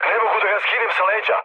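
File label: Serbian aircraft damaged mood low, speech; War Thunder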